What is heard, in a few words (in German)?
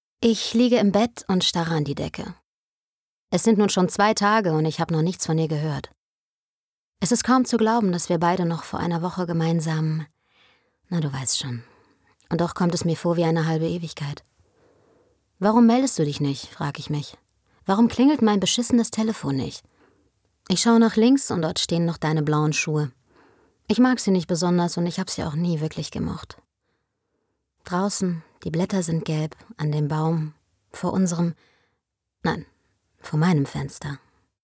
Hoerbuch DE